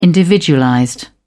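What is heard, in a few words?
"individualized", British English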